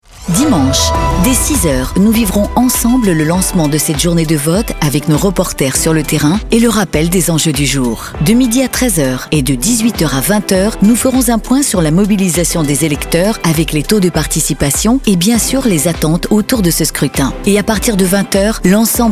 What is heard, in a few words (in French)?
BA PROMO LEGISLATIVES; RTL